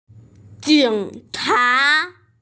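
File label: "jing3cha2", Mandarin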